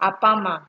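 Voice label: "apama", Nigerian Pidgin, female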